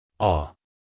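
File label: "awe", American English male; everyone-can-use-english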